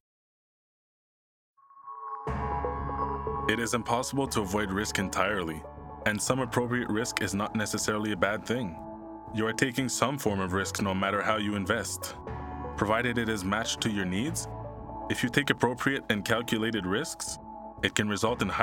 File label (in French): anglophone anglais etats unis accent nouvelle angleterre accent sud; normatif